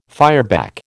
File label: "fireback", American